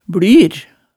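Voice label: "blyr", Hemsedal dialect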